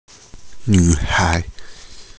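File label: "nv3hai2", Mandarin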